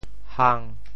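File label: "hang1", Teochew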